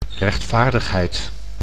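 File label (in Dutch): "rechtvaardigheid", Dutch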